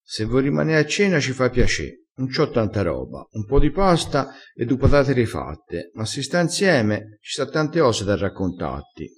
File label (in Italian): "ci s ha", crespinese